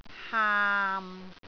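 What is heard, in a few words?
"haam6", Cantonese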